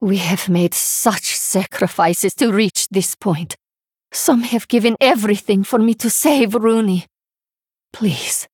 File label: Female Draenei